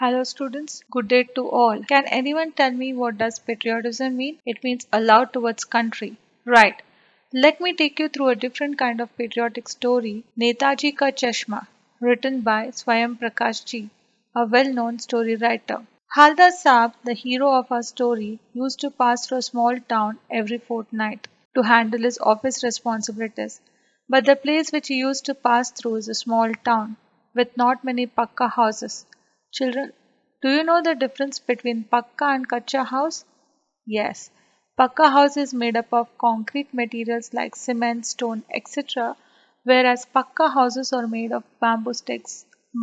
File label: Hindi; CBSE; Class X